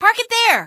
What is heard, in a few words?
park it, female; Garry's Mod; hl2